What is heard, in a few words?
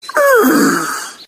fish says awww